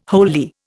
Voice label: "wholly", Indian

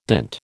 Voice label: "dint", American

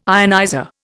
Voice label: "ionizer", Indian

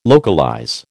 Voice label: "localize", American

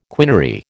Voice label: "quinary", American

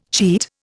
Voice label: "cheat", Indian

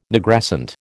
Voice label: "Nigrescent", American

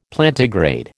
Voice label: "Plantigrade", American

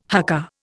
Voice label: "haka", Indian

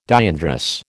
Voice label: "diandrous", American

